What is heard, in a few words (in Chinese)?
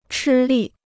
"吃力", Chinese